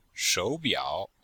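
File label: "shou3biao3", Mandarin Chinese; Cours de Chinois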